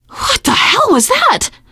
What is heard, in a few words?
reaction negative, teen girl; voice; source engine; left 4 dead 2; survivor